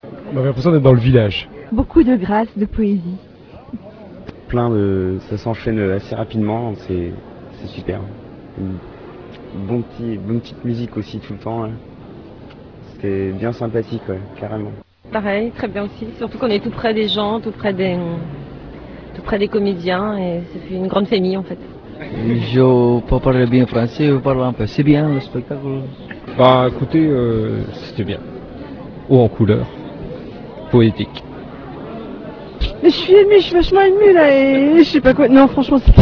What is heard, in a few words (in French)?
commentaires; romanes